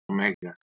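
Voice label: "megh2", Proto-Indo-European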